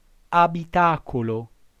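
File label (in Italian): "abitacolo" Italian word, voce; abiˈtakolo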